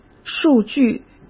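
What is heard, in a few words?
"数据" Mandarin Chinese; chinese flashcards; hsk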